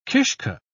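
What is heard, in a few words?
"kishke", British English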